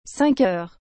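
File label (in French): "cinq heures", French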